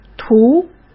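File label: "图", Mandarin Chinese; chinese flashcards; hsk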